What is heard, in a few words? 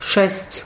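"ŠESŤ", Slovak; HearingGame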